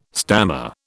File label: "stammer", British